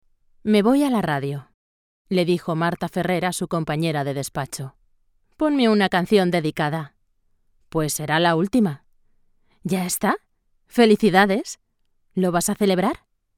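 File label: Spanish, female, audiobook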